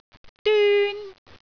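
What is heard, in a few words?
"dyun1", Cantonese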